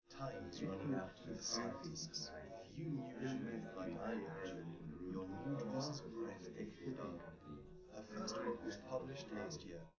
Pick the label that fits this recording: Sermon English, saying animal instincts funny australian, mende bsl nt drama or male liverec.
male liverec